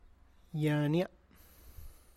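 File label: my neck, Tlacochahuaya Zapotec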